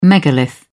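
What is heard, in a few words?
"megalith", British English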